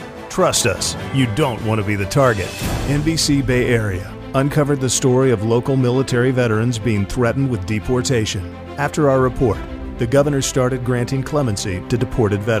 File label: NEWS